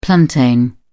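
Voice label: "plantain", British English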